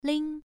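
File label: "ling", tone 1, pronunciation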